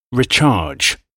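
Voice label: "recharge", English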